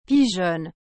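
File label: "pigeonne", French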